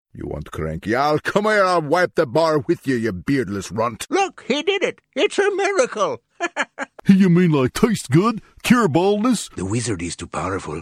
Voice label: character voice

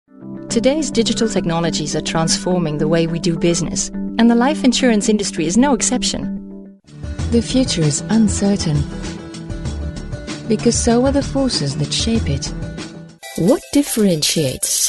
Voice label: Narration English

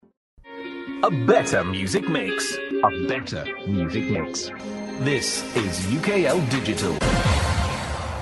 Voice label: radio imaging

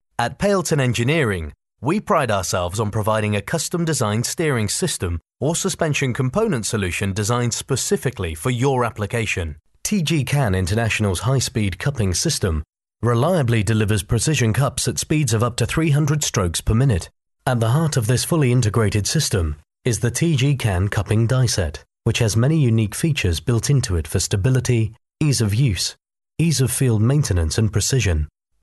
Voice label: corporate

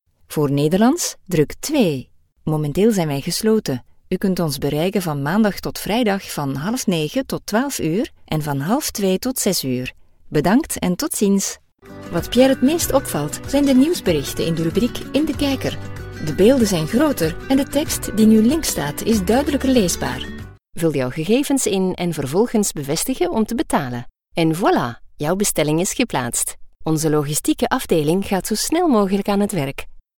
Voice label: Generic Flemish

